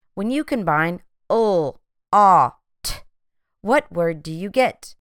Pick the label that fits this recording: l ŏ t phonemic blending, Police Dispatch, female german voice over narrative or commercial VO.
l ŏ t phonemic blending